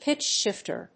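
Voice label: "pitch shifter", English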